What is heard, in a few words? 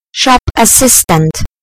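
"shop assistant", British English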